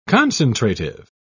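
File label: "concentrative", British English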